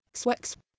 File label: "sweks", Proto-Celtic